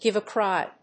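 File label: "give a cry", English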